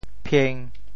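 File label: "phieng1", Teochew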